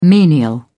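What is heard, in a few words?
"menial", British English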